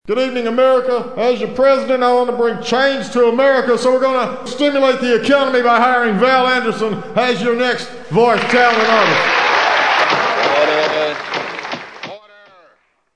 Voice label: Impersonation; Obama